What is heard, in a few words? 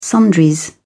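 "sundries", English, uk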